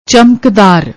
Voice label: "chamakdaar", Punjabi; ਚਮਕਦਾਰ